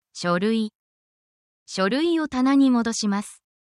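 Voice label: Japanese word, japanese phrases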